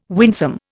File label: "winsome", Indian